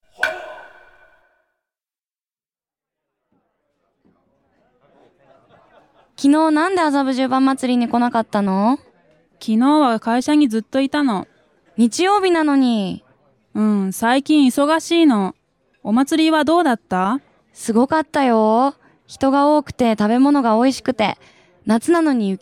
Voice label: dialog; JapanesePod101; Beginner Season 1